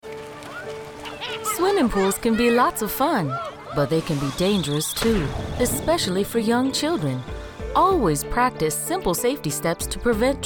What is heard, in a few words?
Radio Spot; Learn the Pool Safely water safety steps in our radio broadcast PSAs.; English